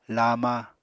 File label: "lama", Apatani